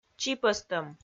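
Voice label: "чипӧстӧм", Komi, female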